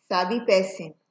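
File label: "sabi person", Nigerian Pidgin, female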